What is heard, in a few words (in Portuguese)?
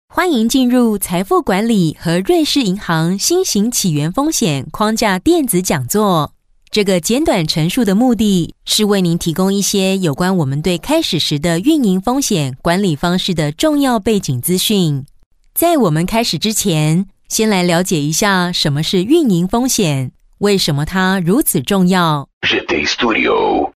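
Chinês Feminino Mandarim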